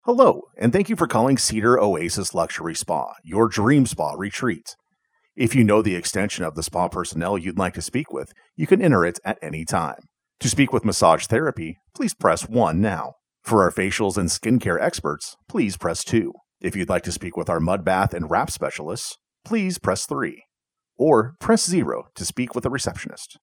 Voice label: ivr